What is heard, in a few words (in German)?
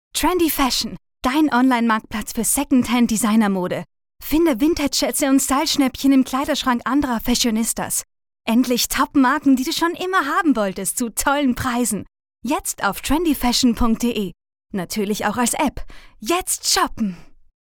werbung; trendy fashion